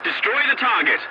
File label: voice message attack target, english; War Thunder